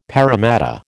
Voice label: "Parramatta", American